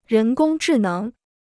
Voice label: "人工智能", Chinese